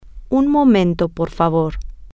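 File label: Spanish, female; one moment please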